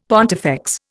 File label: "pontifex", Indian; Dictionary